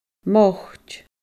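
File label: "moit", Scottish Gaelic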